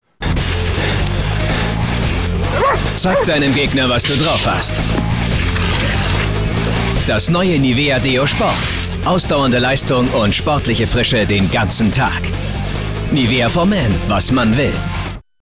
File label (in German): Fremdtake Cool